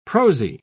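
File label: "prosy", British English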